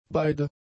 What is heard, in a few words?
"beide", German; adjectives